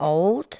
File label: "old", L2 English